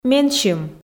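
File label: "менсьым", Komi, female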